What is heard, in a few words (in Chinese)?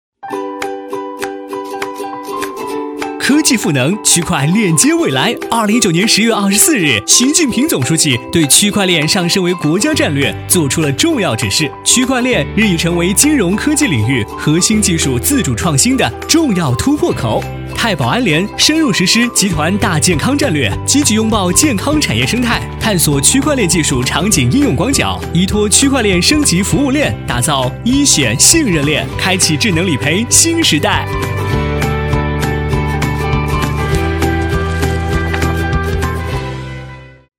男 飞碟说 区块链智能理赔